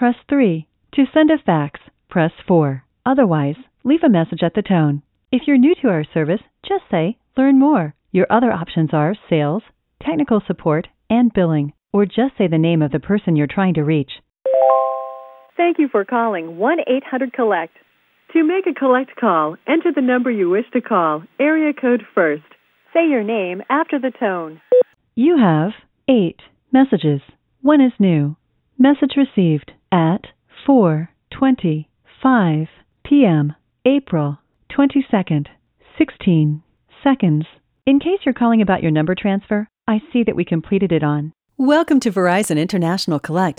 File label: Voice Mail Prompts Greetings; Verizon; MCI; PBsVoxFlavors